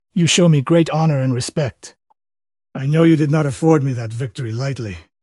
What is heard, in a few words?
happy; fertig-classic-games; Puzzle; kage